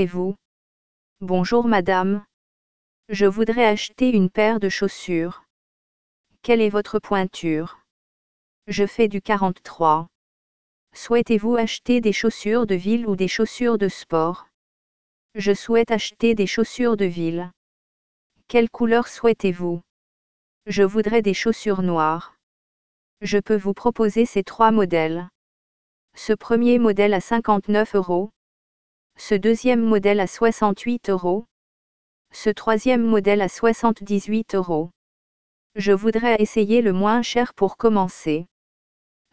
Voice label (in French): imaginons un dialogue commercial